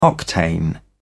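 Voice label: "octane number", English, British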